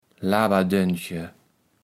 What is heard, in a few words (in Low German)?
"Laberdönche", kaevels platt